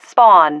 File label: "spawn", female